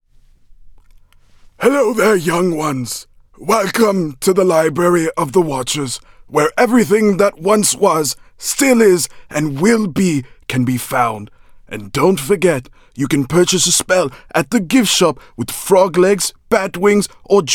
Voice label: old man, voice